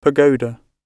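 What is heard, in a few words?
"pagoda" spoken, British English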